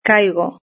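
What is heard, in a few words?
"caigo" Spanish; verb-conjugator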